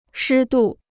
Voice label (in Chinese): "湿度", Chinese